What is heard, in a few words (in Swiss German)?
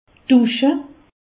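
"dusche", Alsatian; medecine; doucher se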